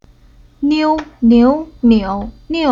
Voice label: "Niu", Chinese